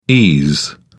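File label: "ese", British English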